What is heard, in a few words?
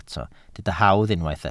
"hawdd", Welsh